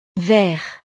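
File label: "vert", French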